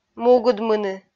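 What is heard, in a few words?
"мугыдмыны" Komi, female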